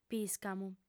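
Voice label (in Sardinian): "pìscamu", female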